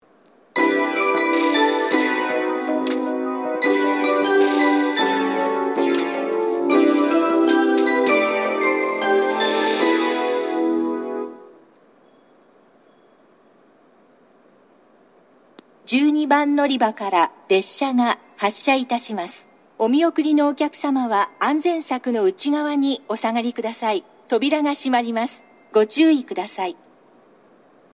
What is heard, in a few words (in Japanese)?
12番のりば発車メロディ＋発車予告放送; shin omura